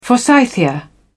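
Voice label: "forsythia", English, British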